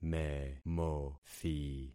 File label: "memothi", concat syllables; Affixing experiment